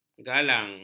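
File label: "galang", Malay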